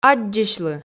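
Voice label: "аддзысьлы", Komi, female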